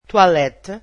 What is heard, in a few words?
"toilet", Portuguese